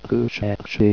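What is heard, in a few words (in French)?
"recherche", French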